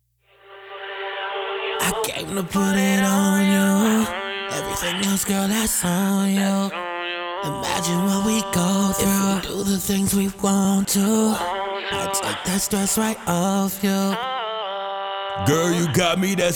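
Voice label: Vocals, 130, D minor, Wet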